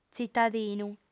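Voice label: "tzitadinu", lingua sarda, female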